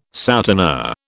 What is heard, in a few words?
"souteneur", British